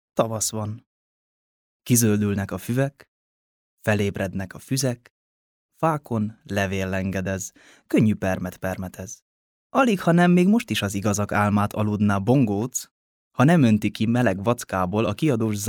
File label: casting sample, NARRATION; hungarian